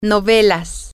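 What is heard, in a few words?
novels sitcoms, Spanish, female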